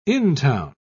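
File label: "intown", British English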